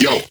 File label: Vocal Adlib Male "Yo"; bass house